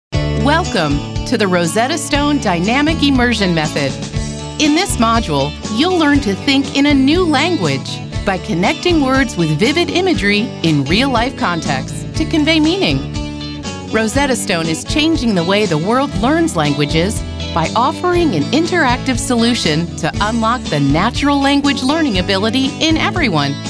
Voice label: Corp Narrations